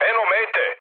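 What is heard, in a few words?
Serbian near waypoint mood med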